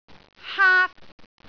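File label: "haak1", Cantonese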